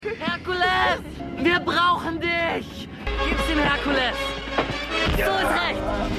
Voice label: Junge Aus Der Stadt; Hercules

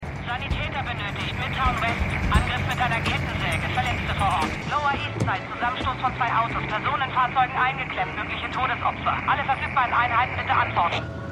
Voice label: Funkstimme; New Amsterdam

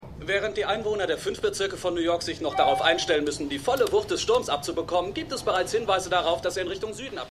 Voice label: TV Wettersprecher; For The People

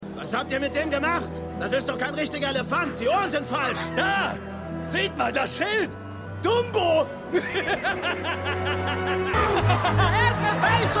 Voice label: Zwischenrufer M1 M2 F1 M3, Stimmproben; Dumbo 2019

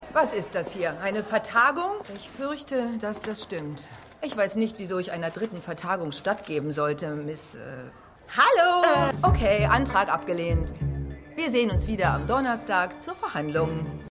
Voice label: Richterin, Stimmproben; Marion Handgrove; The Good Fight

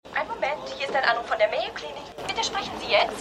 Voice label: AirPlane Telefonstimme

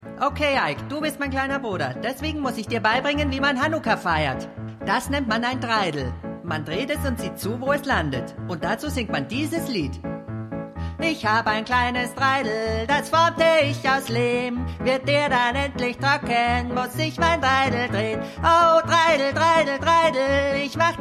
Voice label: Sprache Gesang Pitch; Kyle; South Park

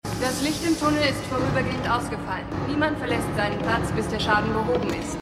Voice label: Durchsage; Outer Limits

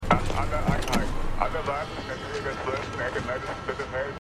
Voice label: Funkstimme; The Wire

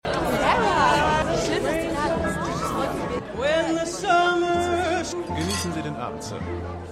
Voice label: Frau Party Kellner

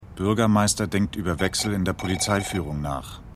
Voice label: Off Sprecher; The Wire

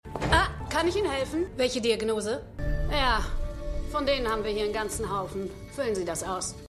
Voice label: Psych Pflegerin; Stimmproben; Arrow

